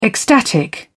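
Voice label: "ecstatic", British English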